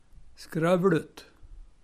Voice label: "skrôvLut", daladialekt; gagnsmal

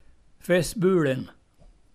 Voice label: "fessbuLin", daladialekt; gagnsmal

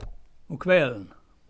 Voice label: "kväln´", daladialekt; Uttal; gagnsmal

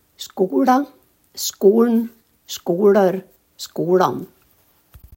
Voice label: "skola", daladialekt; gagnsmal

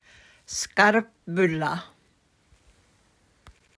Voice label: "Skarpbulla", knäckebröd, daladialekt; På gagnsmål säger man: / skârpbullâ

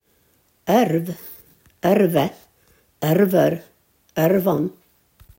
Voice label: Så här uttalar man ordet ôrv; daladialekt; gagnsmal; Lantbruk

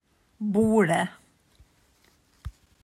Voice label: "bolä", daladialekt; Uttal; gagnsmal

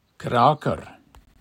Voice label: "krâkôr", daladialekt; Uttal; gagnsmal

